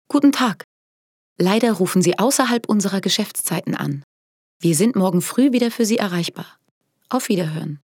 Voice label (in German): telefonpaket anrufbeantworter